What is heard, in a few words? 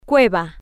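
"cueva", Spanish, female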